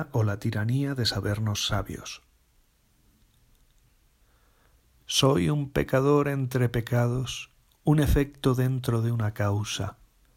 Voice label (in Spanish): poema original voz del autor; ¡Antropofanía; la cratera de artemis primavera 20; depaul university of chicago